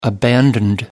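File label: "abandoned", British English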